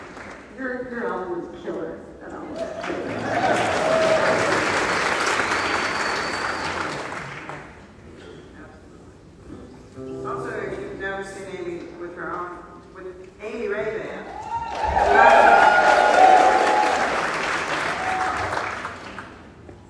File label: conversation